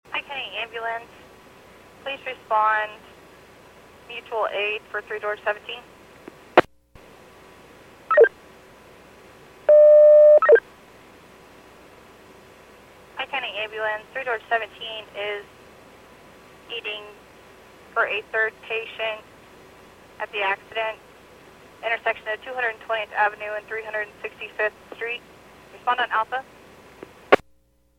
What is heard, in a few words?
PAGING